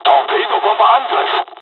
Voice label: japanese; first wave